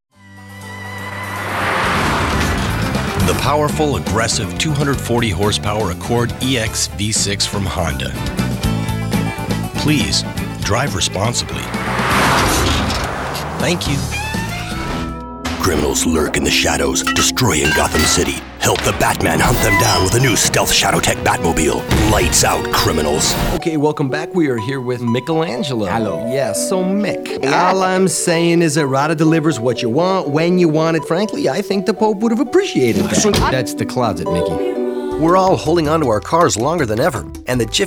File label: commercial